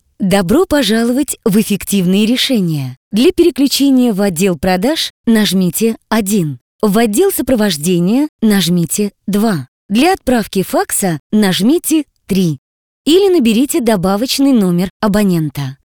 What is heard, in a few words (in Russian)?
golosovoe privetstvie; EffektivnyeResheniya; ivrplus